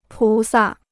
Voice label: "菩萨", Chinese

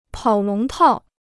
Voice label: "跑龙套", Chinese

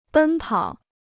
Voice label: "奔跑", Chinese

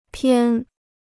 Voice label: "偏", Chinese

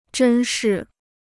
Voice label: "珍视", Chinese

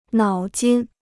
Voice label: "脑筋", Chinese

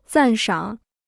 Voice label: "赞赏", Chinese

